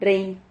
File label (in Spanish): "rrehin", Silabas